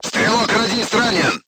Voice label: voice message machine gunner wounded, crew tanks russian